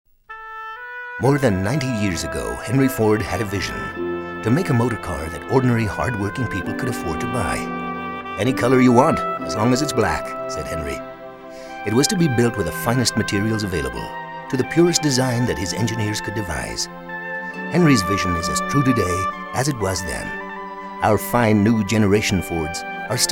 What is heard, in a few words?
AMERICAN SLIGHT